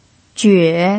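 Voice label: "jue" third tone, pinyin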